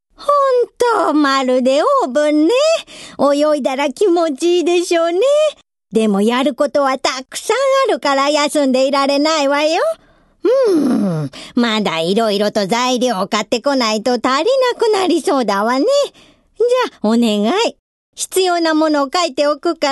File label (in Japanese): roujo, female voice